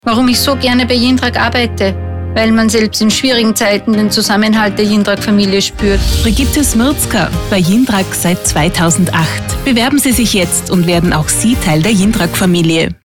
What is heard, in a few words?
SPOT